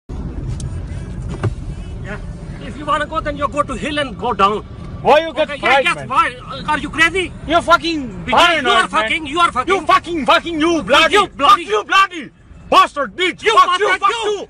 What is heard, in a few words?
indian guys fighting; sound effect